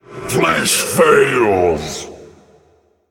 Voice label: Male Faceless Attack

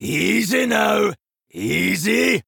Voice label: Male Dwarf Tame Beast

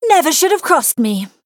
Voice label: Female Human Emote Knockout; Bacon Shop

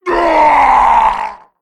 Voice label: Male Felguard Death

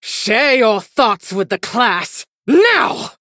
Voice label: Female Human Play

